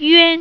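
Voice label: "yuan", Chinese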